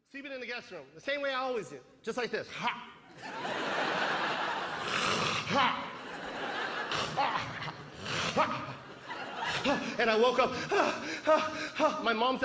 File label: snoring comedy stand up; jo koy; comedy central